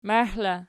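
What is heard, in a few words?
"maih" locative, Archi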